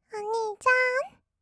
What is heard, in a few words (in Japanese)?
おにいちゃん, kawaii voice; ASMR; 二人称; 1200 kawaii voice!!